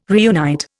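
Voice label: "reunite", Indian English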